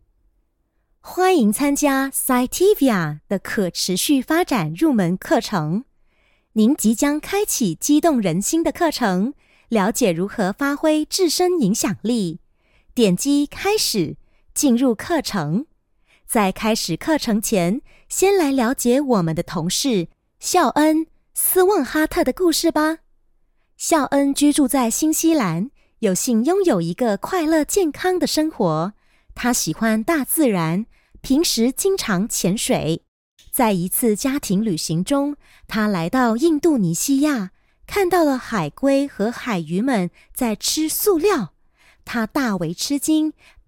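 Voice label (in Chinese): Chinese, E-learning; GoLocalise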